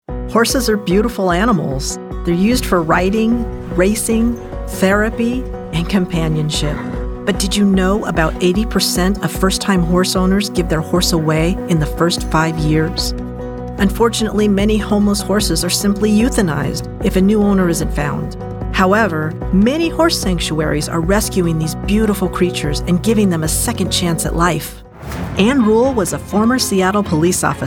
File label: Narration